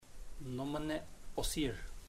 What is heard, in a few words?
horses, chamacoco; Puerto Diana